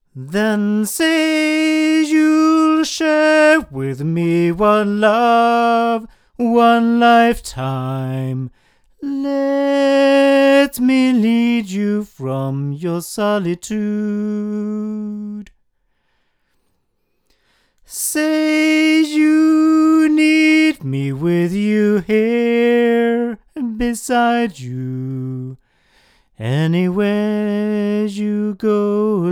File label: male